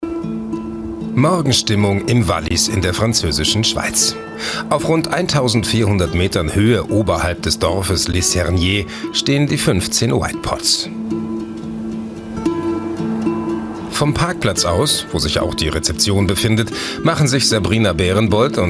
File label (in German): doku whitepod zelte